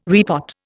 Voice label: "repot", Indian

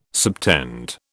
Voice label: "subtend", British

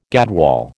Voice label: "gadwall", American

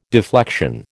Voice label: "deflection", American

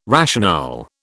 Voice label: "rationale", British

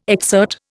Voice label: "exsert", Indian

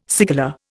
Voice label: "cigala", Indian

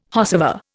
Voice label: "whosever", Indian

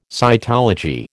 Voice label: "cytology", American

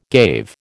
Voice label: "gave", American

